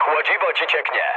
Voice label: damaged water mood low, speech pol; War Thunder